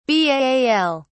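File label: "baal", British English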